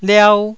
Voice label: "liau1", Taiwanese

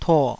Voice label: "tuo3", Taiwanese

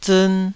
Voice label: "ziin2", Taiwanese syllable

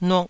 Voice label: "nuk2", Taiwanese

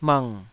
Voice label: "mng3", Taiwanese

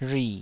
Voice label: "rhui3", taiwanese

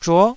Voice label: "zhuo9", Taiwanese syllable

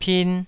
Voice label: "pin2", Taiwanese

